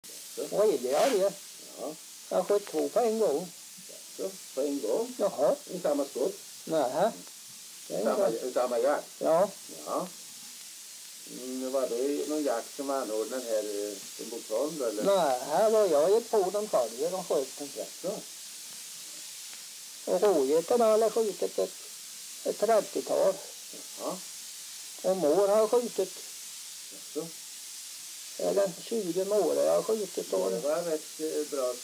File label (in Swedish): dialekter; Folkminnen